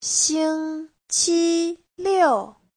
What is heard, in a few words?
"xing1 qi1 liu4", Chinese